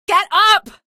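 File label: citizen buddy killed, female; vo; npc; source engine; episodic; episode 1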